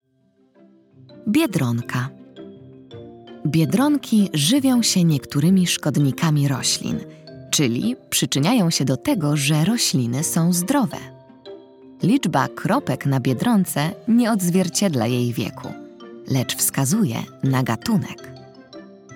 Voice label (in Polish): narracja; czasopismo mis; wiosna